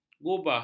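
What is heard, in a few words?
"gubah", Malay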